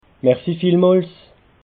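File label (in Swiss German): "merci", Alsatian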